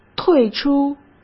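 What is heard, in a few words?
"退出", Mandarin Chinese; chinese flashcards; hsk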